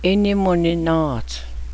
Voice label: twisted ankle, Cheyenne